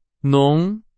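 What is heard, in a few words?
Chinese, vocabulary; hsk4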